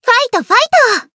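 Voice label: heal, Japanese; violet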